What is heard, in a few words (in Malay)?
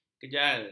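"kejal", Malay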